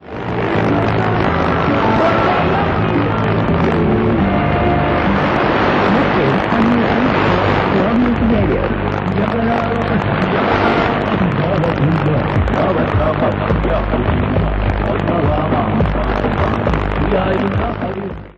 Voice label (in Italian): 15700 kHz, inglese; World Music Radio; Degen DE 1103; telescopica indoor; St. Ursen